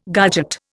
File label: "garget", Indian